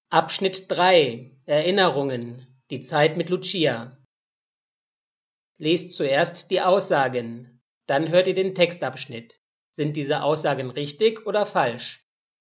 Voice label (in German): Hörverstehen Deutsch B1; Leonhard Thoma Schlaflose Nacht